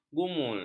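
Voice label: "gumul", Malay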